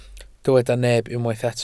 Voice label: "neb", Welsh

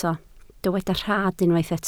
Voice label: "rhad", Welsh

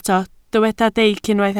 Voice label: "deic", Welsh

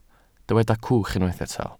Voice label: "cwch", Welsh

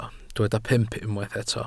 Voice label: "pump", Welsh

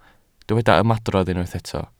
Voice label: "ymadrodd", Welsh

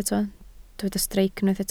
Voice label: "streic", welsh

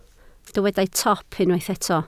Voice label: "top", Welsh

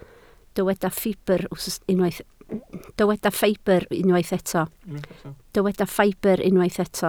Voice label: "ffibr", Welsh

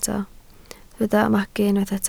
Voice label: "magu", Welsh

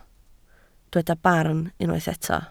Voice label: "barn", Welsh

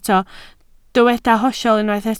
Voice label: "hollol", Welsh

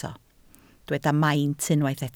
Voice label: "maint", Welsh

